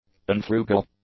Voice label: "unfrugal", english; adjectives